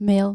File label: "mail", dutch